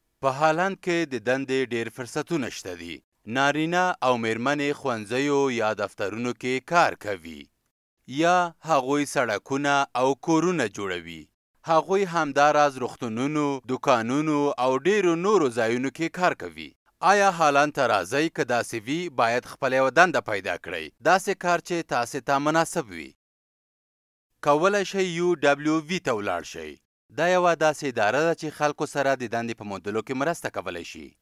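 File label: Pashto male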